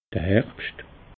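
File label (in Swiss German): "herbscht", Alsatian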